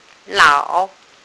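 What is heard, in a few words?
"lao3", Mandarin Chinese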